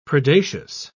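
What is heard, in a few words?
"predacious", British English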